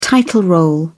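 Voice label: "title role", British English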